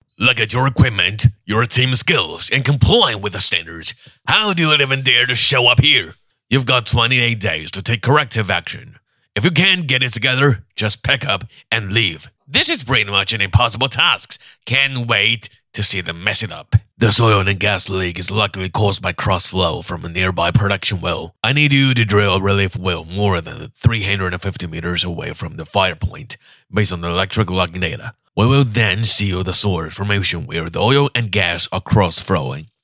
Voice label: 男 英文角色 3角色

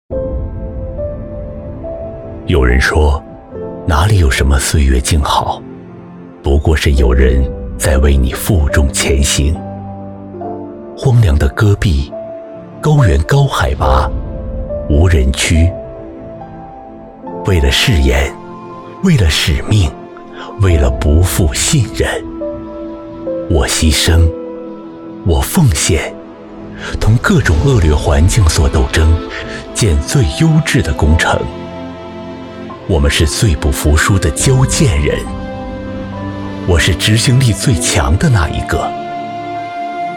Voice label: 男 走心; 新疆交建

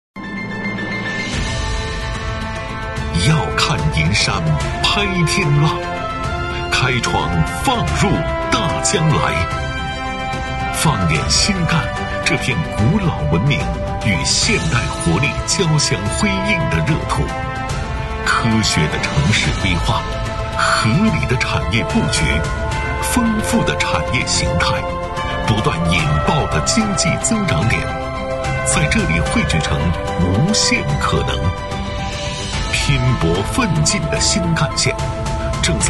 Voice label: 男 专题 招商片, 国语配音; 新干

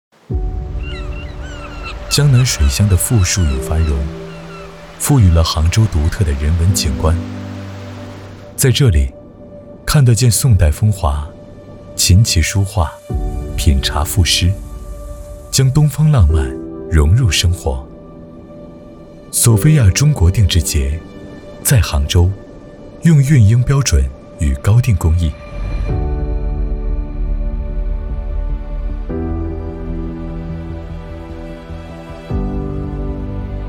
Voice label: 男 走心; 杭州篇

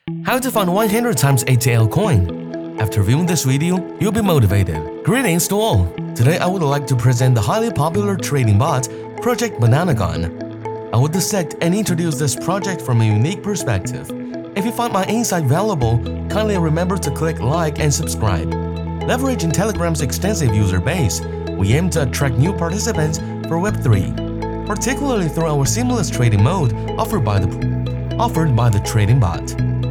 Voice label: 男 英文 年轻激情

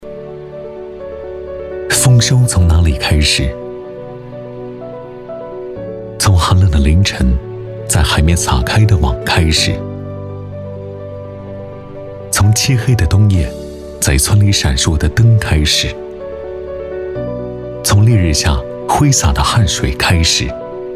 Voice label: 男 广告; 丰收从哪里开始

